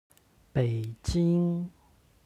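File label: "beijing", Chinese; 北京; HSK Level One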